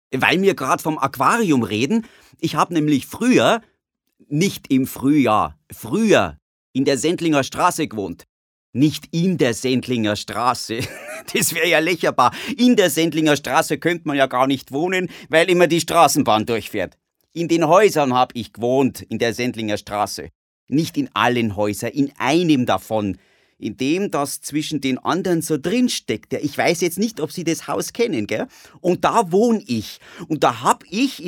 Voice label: Dialekt Bayerisch

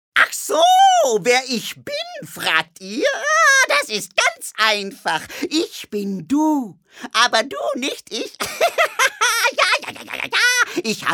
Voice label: Trickstimme

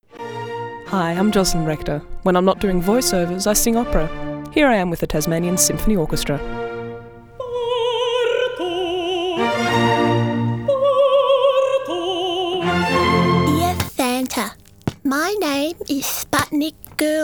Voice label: VO, Sprecher

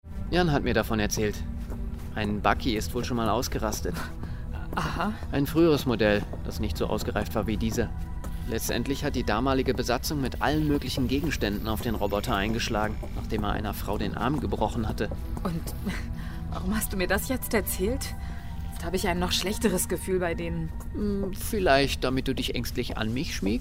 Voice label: Hoerspiel SciFi

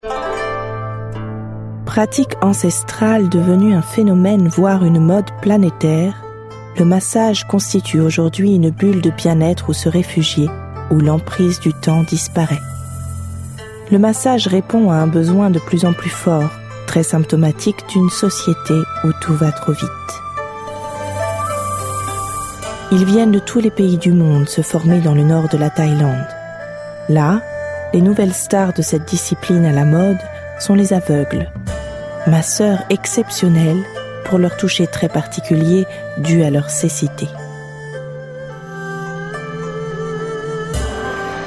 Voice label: Doku franzoesisch

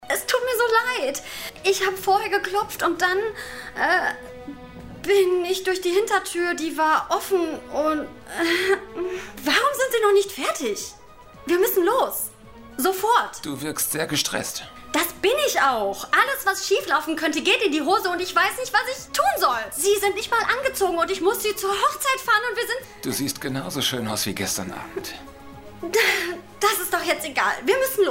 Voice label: junge Frau gestresst Synchron

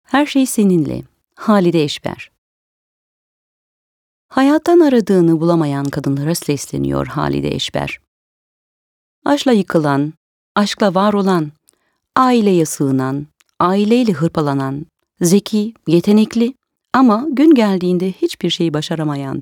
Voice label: Tuerkische sprecherin voice tuerkisch

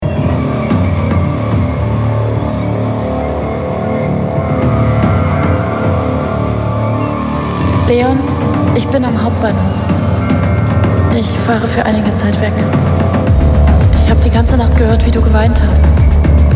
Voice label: Voice Over Kurzfilm

